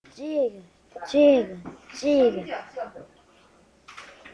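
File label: "jig", spoken word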